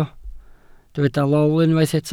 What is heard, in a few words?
"lol", Welsh